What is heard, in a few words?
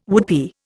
"woodpie", Indian